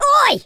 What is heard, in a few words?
jump, London Cabbie; speech